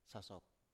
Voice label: "sasop", Biak

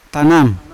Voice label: "tanam" fire, Yawa

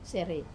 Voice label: "serei", sarong cloth, Wamesa

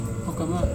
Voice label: "hokama", nose, Serewen

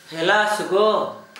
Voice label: like a deer, Gta, Dialect: Hill; hela sǝgɔ

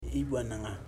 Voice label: boy, Ipili

